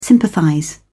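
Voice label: "sympathize", English, British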